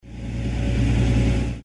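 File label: "ploughing", English word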